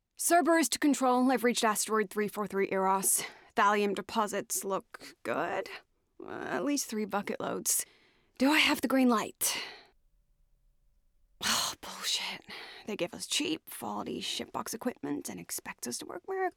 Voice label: American